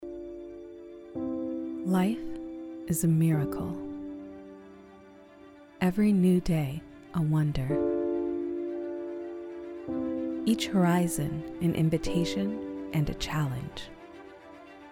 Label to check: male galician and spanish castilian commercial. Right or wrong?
Wrong